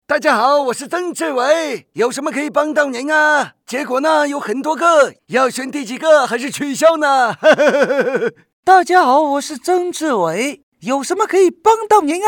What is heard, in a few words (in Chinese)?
男 模仿 双语版; 模仿曾志伟